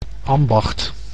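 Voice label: "ambacht", Dutch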